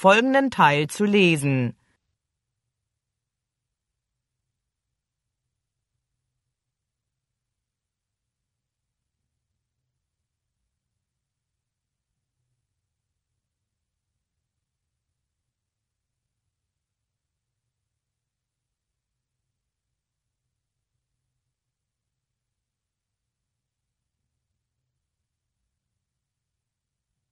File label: german directions